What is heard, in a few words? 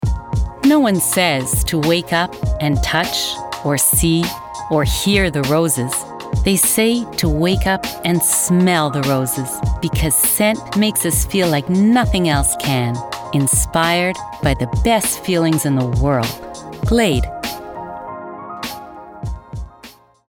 commercial